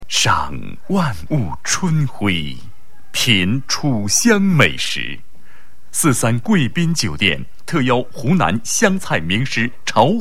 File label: boy, restaurant; Chinese; yangzhong